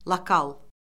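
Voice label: "lokal", Azerbaijani; Orfoqrafiya Lüğəti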